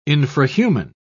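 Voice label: "infrahuman", British English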